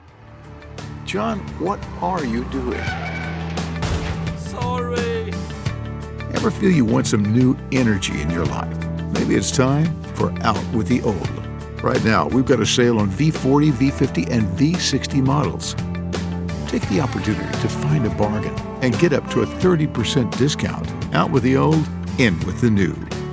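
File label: male, advert, English; reklam eng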